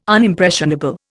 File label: "Unimpressionable", Indian